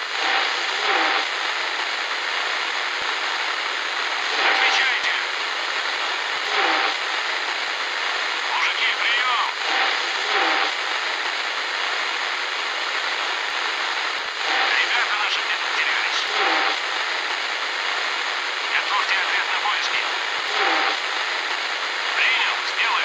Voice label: radio comm